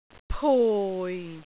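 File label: "pui4", Cantonese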